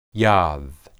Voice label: "yad", Hebrew